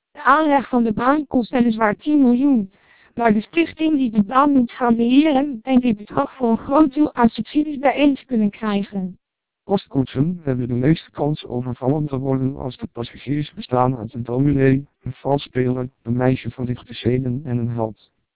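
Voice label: Dutch speech, TWELP 480 bps vocoder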